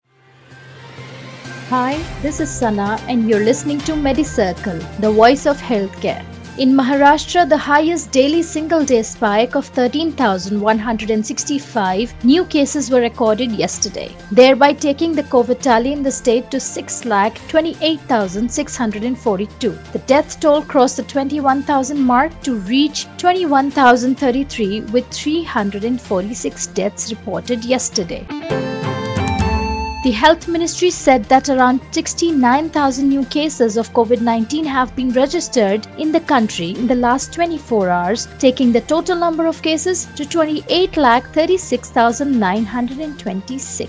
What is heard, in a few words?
english news